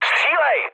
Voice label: attack position, speech cze, mood low